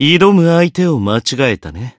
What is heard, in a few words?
Voice win jp; zeviro